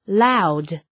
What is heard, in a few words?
"loud"